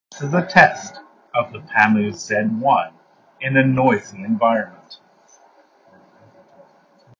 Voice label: Noisy; Pamu Z1